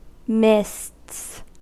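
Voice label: "mists", US English; pronunciations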